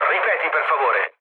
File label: chat, speech it; War Thunder